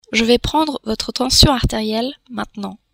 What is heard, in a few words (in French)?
"tension arterielle", French